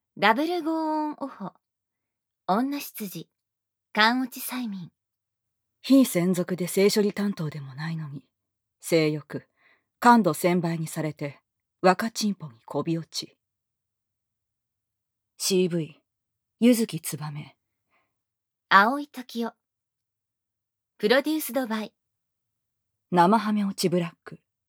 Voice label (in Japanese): タイトルコール, ASMR